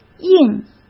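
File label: "印", Mandarin Chinese; chinese-flashcards; hsk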